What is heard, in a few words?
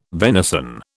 "venison", British